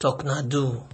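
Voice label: "talknado", Nigerian Pidgin, male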